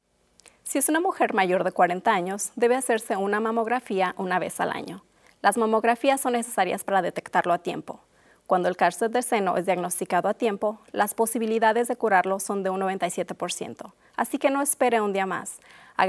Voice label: SAGE Spanish

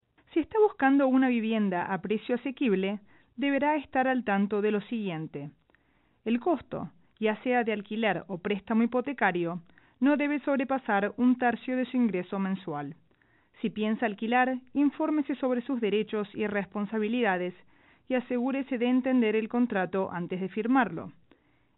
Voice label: span housing, psa

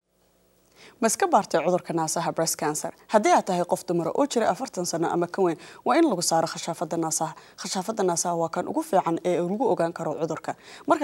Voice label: SAGE Somali

